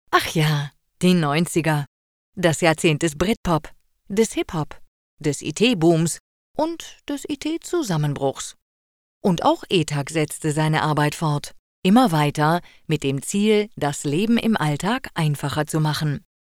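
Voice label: narration natural German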